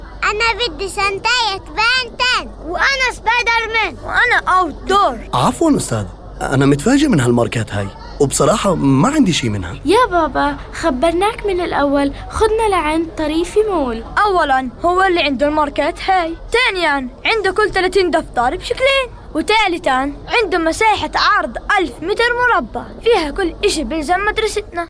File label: arabic boy girl many